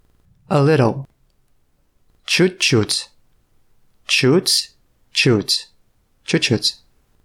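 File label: Russian A little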